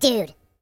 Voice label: "Drat", Surfer

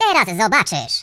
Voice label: Just you wait, voices, pl; hedgewars; Default pl

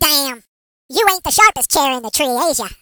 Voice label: Stupid, Hill Billy; hedgewars

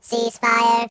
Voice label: "Watch it", robot voice

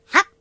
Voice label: Jump, British; hedgewars

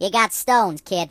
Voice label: "Oh dear", Mobster; HedgewarsMobile

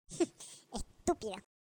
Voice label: Stupid, voices es; Default es

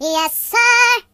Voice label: Yes sir, voices Singer; hedgewars

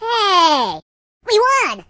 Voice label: "Victory", Surfer, voices; hedgewars

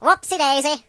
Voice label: "Oops", British; hedgewars

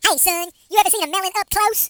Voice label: Melon, Hill Billy; hedgewars

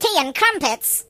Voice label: "Excellent", British

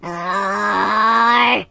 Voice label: "Drat", Pirate; hedgewars

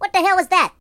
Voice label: "Nutter", Mobster

